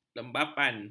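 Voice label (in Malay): "lembapan", Malay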